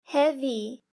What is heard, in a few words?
"heavy", english; grade3; fun with sentence